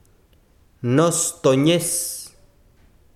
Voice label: besiro, chiquitano

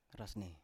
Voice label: "rasne", Biak

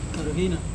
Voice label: "karuhina", shoulders, Serewen

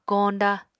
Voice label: "koñda", Apatani

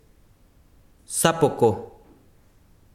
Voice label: besiro rio, chiquitano

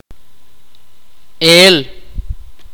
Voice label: "saber", mopan

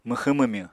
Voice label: "mwxwñ-mwmywñ", Apatani

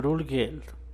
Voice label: "ruu", San Mateo Macuilxochitl Zapotec; midnight; medianoche

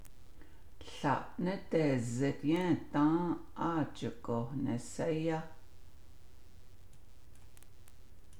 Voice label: I went there a month ago and returned, Navajo

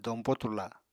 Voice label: "dompo turla", Apatani; Household Items

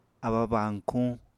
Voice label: "abba-bañkuñ", apatani

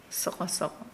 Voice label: Nukuoro; alone

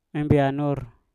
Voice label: "embeanur", Mpur; delapan

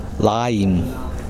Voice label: lime, Kosraean

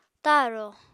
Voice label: "ta7rò", Apatani

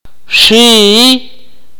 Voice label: "sharp", Akateko

